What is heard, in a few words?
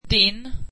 your, swedish